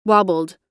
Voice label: wobbled, us